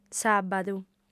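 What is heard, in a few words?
"sàbadu", female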